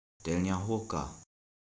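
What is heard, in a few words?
"Delnja Hórka", Upper Sorbian; Нидергуриг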